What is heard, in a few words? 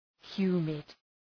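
"humid", English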